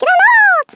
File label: "Grenade", Swedish, Speech; 11khz; International